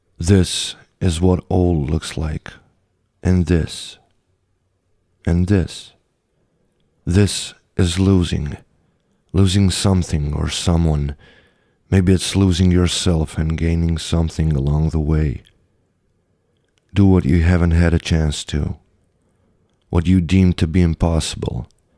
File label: Old Man Voice